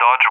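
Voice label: "Dodge", English speech; Allied Flight; CallSign; DCS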